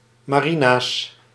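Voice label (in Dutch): "marina's", Dutch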